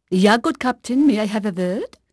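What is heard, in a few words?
Dutch female, voice; Pirates Of The Caribbean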